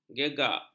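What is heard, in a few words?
"gegak", Malay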